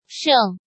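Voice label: "Shèng", Chinese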